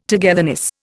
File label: "togetherness", Indian